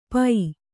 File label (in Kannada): "ಪೋ", Kannada